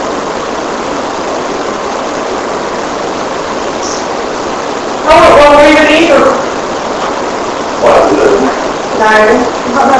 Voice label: Upstairs Hallway Unexplained Loud Inaudible Voice Amplified